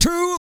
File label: COUNT, Vocal Planet, R&B & Dance, COUNTING; ILIO